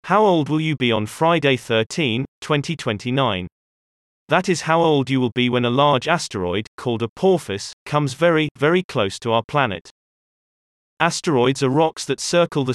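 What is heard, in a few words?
British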